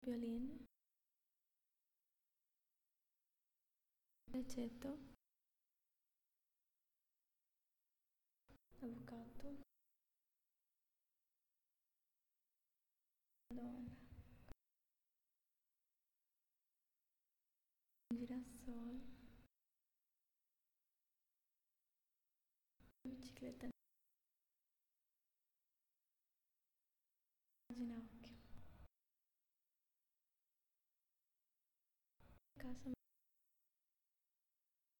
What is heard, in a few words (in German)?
Zusammengefugte Worter Langsam Italienisch